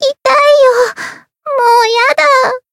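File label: win barely, Japanese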